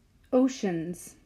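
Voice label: "Oceans", English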